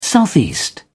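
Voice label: "southeast", English, British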